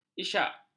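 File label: "isyak", Malay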